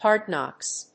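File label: "Hardknocks", English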